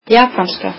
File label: "Japanese", Icelandic